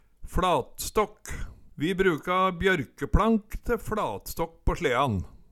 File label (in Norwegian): "fLatståkk", Numedalsmål